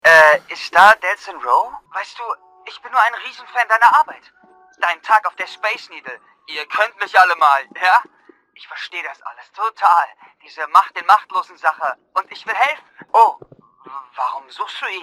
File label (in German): Aufgeregt; Fremdtake